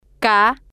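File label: "k", spanish, female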